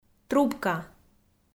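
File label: "трубка", Komi, female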